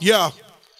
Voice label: "yo", Voice